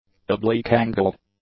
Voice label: "oblique-angled", english; adjectives